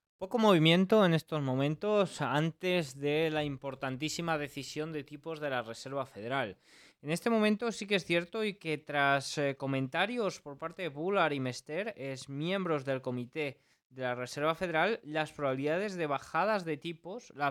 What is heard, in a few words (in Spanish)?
radio; noticias bolsa